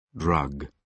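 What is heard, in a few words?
"drug", British English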